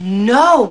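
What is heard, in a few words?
"no", female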